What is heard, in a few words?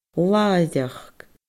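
"lathaireachd", Scottish Gaelic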